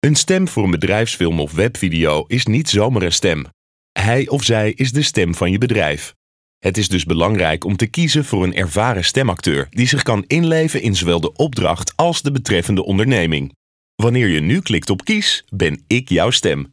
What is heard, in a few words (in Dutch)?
BEDRIJFSFILM